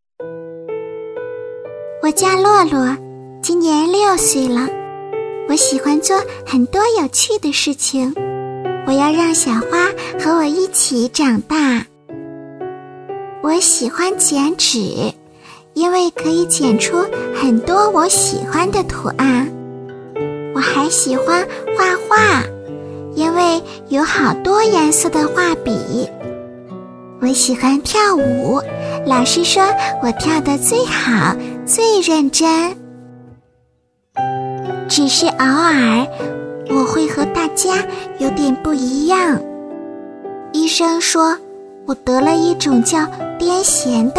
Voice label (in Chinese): 女童 癫痫儿童; 国语女声配音